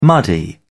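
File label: "muddy", British English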